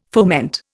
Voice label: "foment", Indian